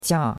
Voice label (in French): "qia4", chinois; Cours-de-Chinois